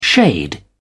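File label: "shade", British English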